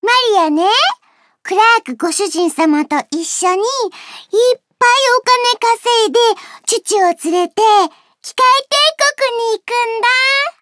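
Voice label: info, Japanese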